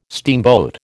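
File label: "steamboat", American English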